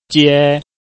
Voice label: "jie1", Chinese